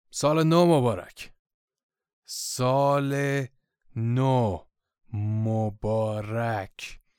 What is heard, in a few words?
farsi happy new year